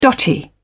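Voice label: "dotty", British English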